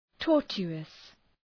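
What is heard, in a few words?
"tortuous", English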